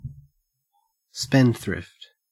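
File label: "spendthrift", Australian English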